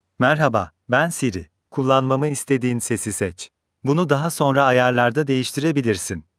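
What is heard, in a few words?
Turkish, Turkey; iOS 26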